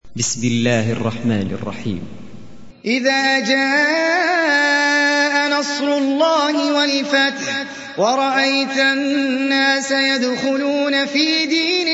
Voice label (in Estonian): An Nasr retsitatsioon